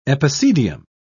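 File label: "epicedium", British English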